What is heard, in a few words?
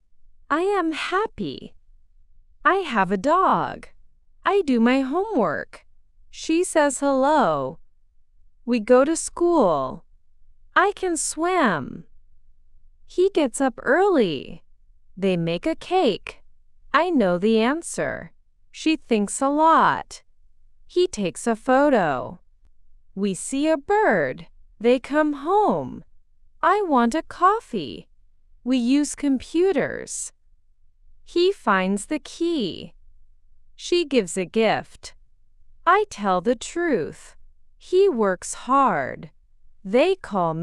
AI speech